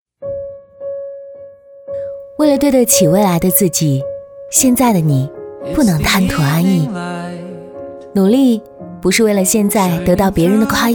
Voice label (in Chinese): 女 年轻清新走心